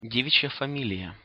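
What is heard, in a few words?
"devic ja familija", Russian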